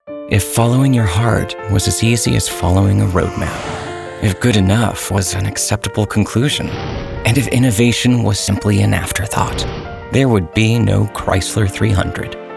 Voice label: VO Luxury Elegant Classy Intimate; Voice Over Read Styles